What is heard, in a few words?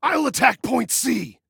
ATTACK, Male